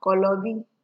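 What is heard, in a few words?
"kolobi", Nigerian Pidgin, female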